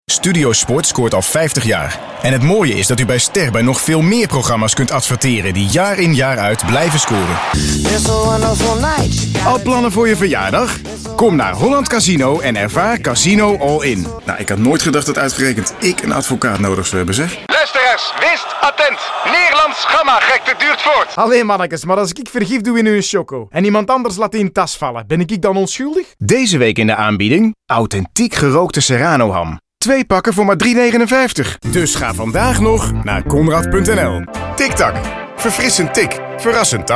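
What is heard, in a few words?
Dutch commercial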